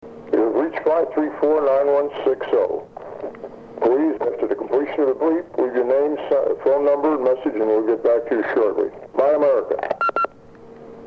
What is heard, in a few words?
Answering Machine